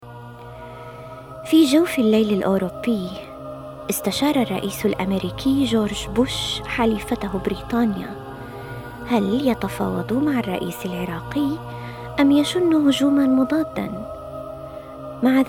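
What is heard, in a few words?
Arabic Female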